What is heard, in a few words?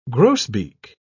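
"grosbeak", British English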